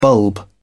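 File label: "bulb", British English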